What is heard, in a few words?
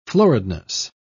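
"floridness", British English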